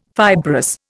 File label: "fibrous", Indian